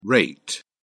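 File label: "reit", British English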